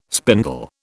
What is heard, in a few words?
"spindle", British